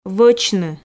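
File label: "вӧчны", Komi, female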